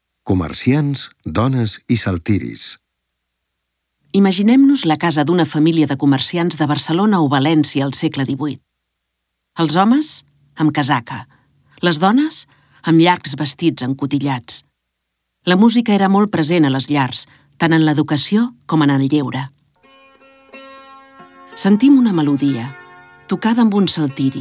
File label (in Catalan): audioguia catala; delamusica